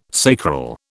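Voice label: "sacral", British English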